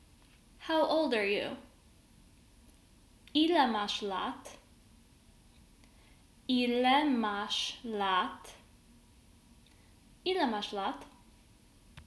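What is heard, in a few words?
How old are you, Polish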